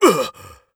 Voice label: macho damage

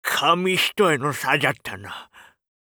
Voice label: oldman win

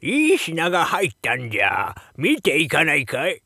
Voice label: shop oldman, voice

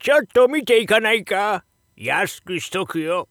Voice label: shop oldman, voice

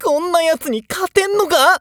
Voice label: nekketsu before

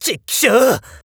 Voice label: nekketsu damage, voice

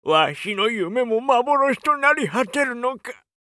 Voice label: oldman down, voice

